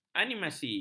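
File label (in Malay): "animasi", Malay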